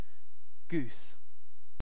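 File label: "goose", British English; pronunciations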